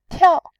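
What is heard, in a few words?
"tiao", Mandarin Chinese, fourth tone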